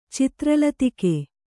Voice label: "ಚಿತ್ರಲತಿಕೆ", Kannada

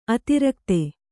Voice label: "ಅತಿರಾಗತೆ", Kannada

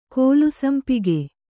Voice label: "ಕಲ್ಲುಸಂಪಿಗೆ", Kannada

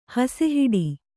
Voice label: "ಹಸೆಹಾಡು", kannada

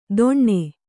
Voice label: "ದೊಣ್ಣೆ", Kannada

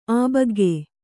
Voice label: "ಆಬಗ್ಗೆ", Kannada

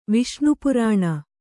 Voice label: "ವಿಷ್ಣುಪುರಾಣ", Kannada

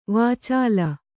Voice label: "ವಾಚಾಲ", Kannada

